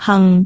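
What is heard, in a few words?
"hang4", Cantonese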